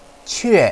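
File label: "qve4", Chinese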